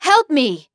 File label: "help me", hostage female; cstrike